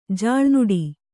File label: "ಜಲನಾಡು", Kannada